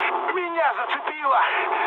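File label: Russian damaged pilot mood low, speech; War Thunder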